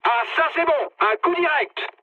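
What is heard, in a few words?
French bomb success mood high; War Thunder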